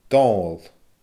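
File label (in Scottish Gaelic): "Doomhnall", Scottish Gaelic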